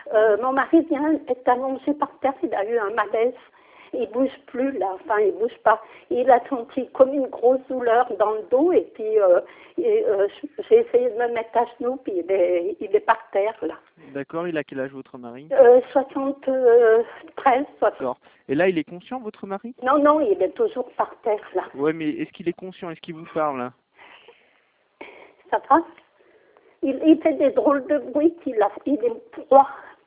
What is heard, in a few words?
french